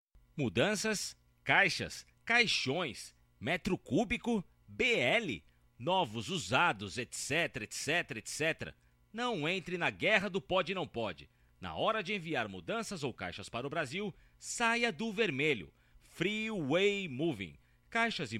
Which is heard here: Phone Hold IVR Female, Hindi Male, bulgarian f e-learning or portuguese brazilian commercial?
portuguese brazilian commercial